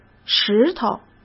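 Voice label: "石头", Mandarin Chinese; chinese flashcards; hsk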